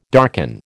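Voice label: "darken", American English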